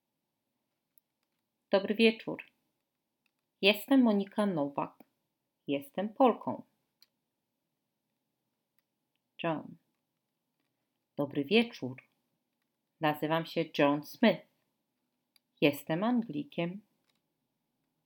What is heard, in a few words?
dialogue, Polish language